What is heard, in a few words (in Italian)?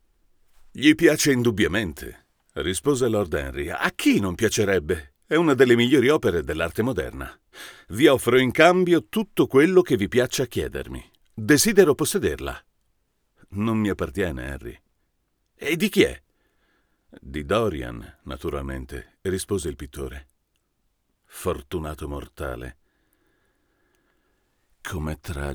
Rode NT-USB per confronto con U87AI